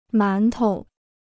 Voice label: "馒头", Chinese

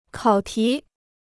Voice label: "考题", Chinese

